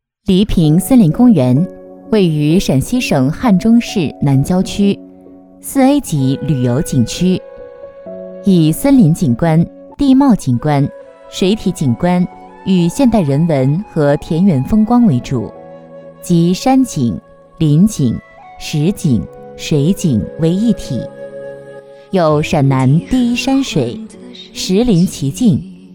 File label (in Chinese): 景区介绍, 沉稳; 宣传片; 旅游; 黎平森林公园